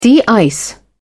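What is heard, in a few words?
"de-ice", en, British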